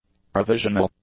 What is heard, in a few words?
"provisional", english; adjectives